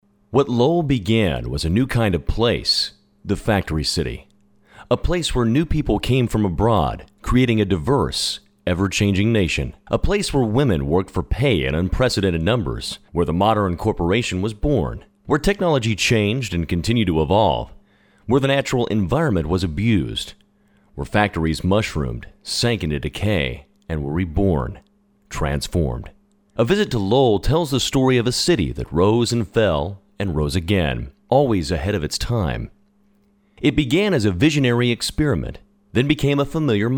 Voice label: Historic Documentary Male